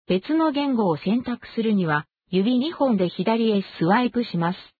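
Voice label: Japanese back info; ja JP; VoiceView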